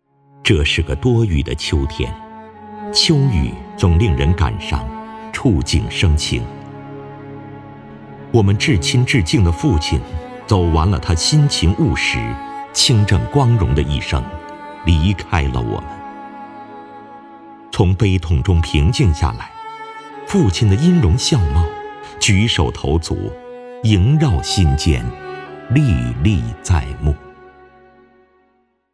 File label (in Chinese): 男11 大气有感情; 走出深山